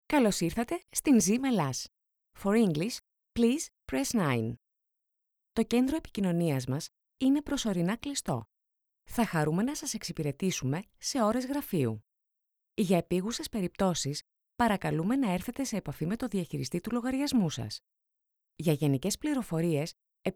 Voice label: IVR